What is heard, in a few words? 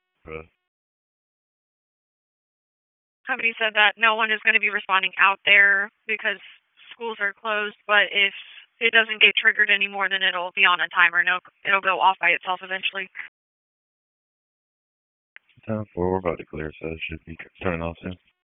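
Raleigh Police Northeast District Dispatch; wake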